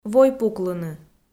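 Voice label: "войпуклыны", Komi, female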